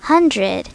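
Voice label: "hundred", English; My Talking Dictionary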